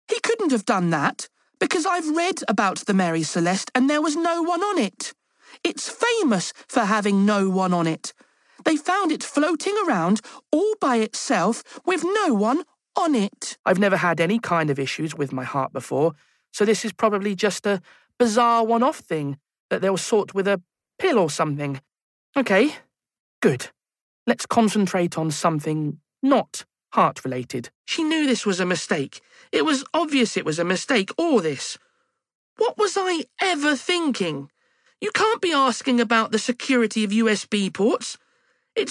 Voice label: Audiobook